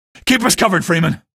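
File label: citizen evac defend us, male; vo; npc; source engine; episodic; episode 1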